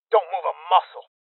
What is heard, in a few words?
Voices Freeze Male Young